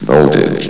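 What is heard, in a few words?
"voltage", male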